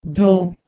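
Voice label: "dhoh1", Proto-Indo-European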